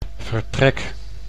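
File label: "vertrek", Dutch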